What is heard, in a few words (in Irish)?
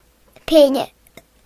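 "pine", Irish; gcompris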